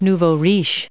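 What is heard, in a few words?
"riche", us English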